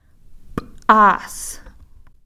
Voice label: "p'aas", Cow Creek